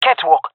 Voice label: catwalk, jungle fem epic; player vo; Counter Strike Model Pack; CS:GO